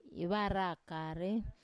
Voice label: "ivarakare", I drop, Wamesa